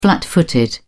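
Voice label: "flat footed", British English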